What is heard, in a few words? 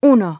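"one", English; iOS 4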